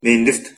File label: hello kalmyk; Мендвт; Mendvt